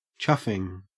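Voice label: "chuffing", English, Australian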